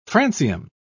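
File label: "francium", English, British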